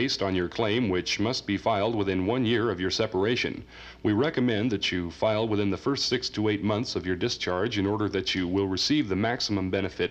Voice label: Radio Spot: V.a. Benefits - Question And Answers; Mono

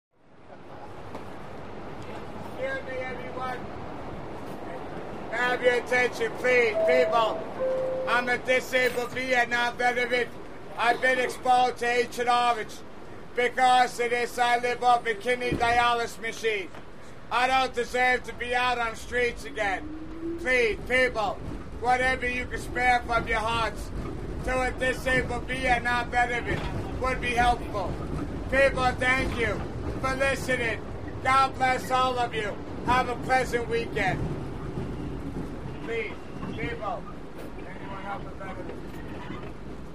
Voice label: Beggar, subway

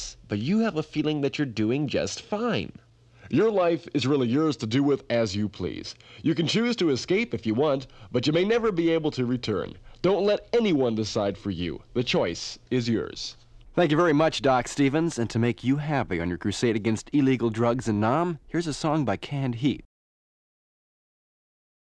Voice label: Radio Spot: Marijuana Advisory; Mono

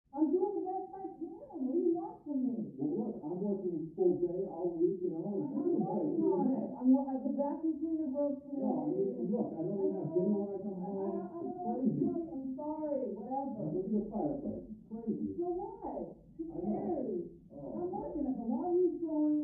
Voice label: Argue Couple Yellin; yelling

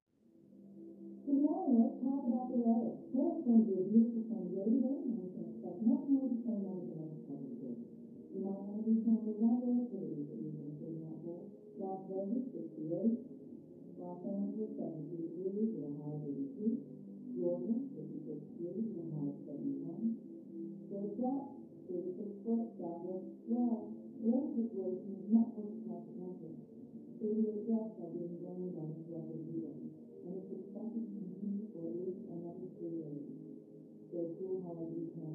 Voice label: Tv Female Weather Re; news

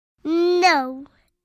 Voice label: 3 Yr Old Girl Says No; kids play

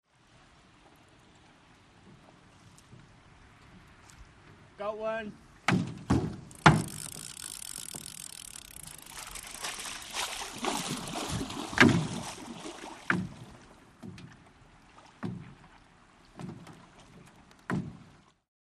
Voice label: Fish Vox Got One Reel, fishing

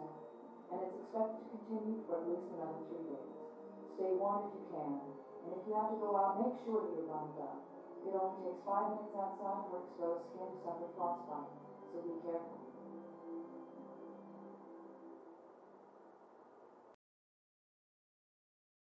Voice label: Tv Female Weather Re; news

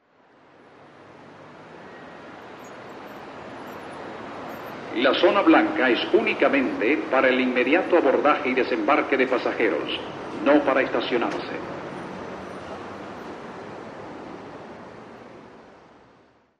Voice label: No Parking Announcem, ext airport

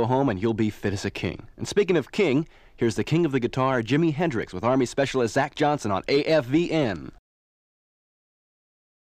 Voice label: Radio Spot: Mosquitos And Malaria Advisory; Mono

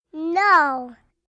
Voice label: 3 Yr Old Girl Says No; kids play

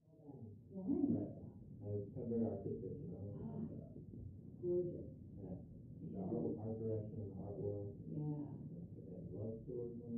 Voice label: Talk; Couple Talking Quietly, Through Thick Wall.; murmurs various

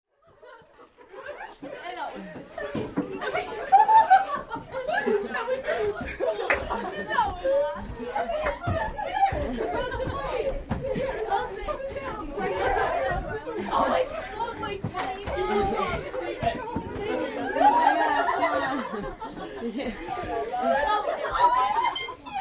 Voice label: Teen Girls Getting On, Teenage Girls

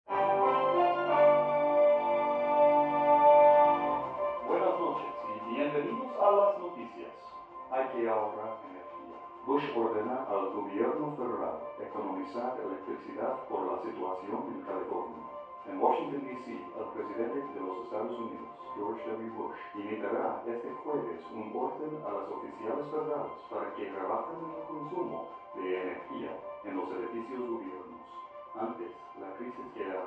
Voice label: TV Spanish Male News; foreign tv

